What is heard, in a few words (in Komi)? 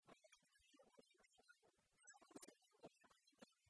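мортыд кымын уна тӧдӧ сымын сылы уна колӧ тӧдны, Komi, female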